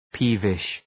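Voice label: "peevish", English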